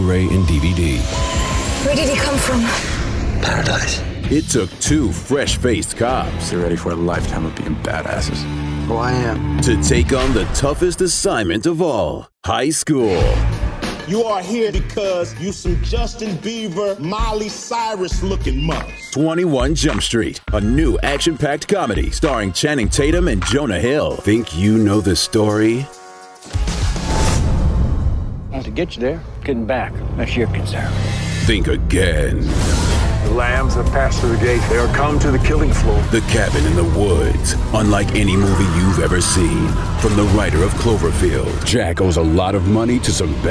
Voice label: Trailer